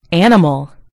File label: "animal", en GB; gcompris